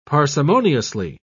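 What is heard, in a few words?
"parsimoniously", British English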